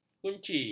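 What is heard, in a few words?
"kunci", Malay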